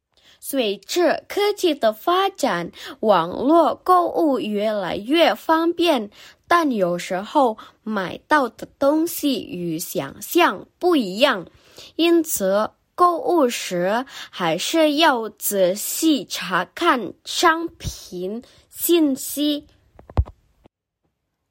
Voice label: Mandarin; mandarin placement test hsk5